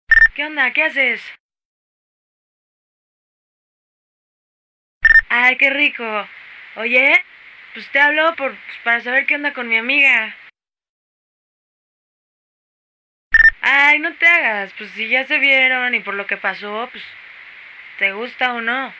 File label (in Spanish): platica nextel; funny